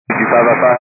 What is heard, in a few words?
122 725MHz, scanner radio